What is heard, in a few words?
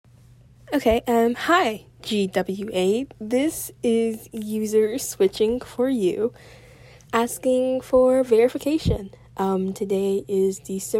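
Female Verification Request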